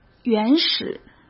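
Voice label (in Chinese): "原始", Mandarin Chinese; hsk; chinese-flashcards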